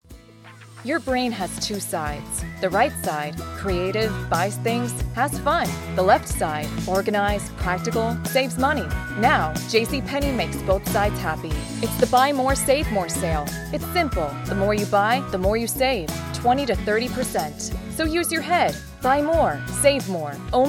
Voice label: Commercial